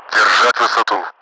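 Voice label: Russian speech, Mi-8MT; Messages hold height; DCS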